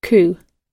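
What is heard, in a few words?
"coup", English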